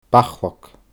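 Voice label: "bachlag", Scottish Gaelic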